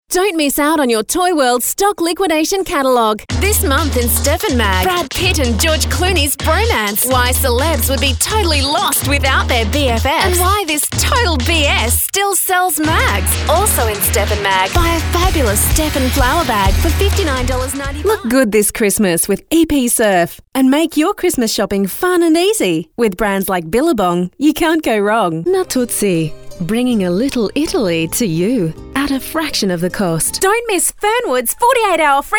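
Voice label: English Australian, female, retail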